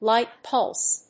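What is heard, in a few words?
"light pulse", English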